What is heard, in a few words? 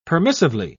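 "permissively", British English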